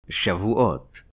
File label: "Shavuot", Hebrew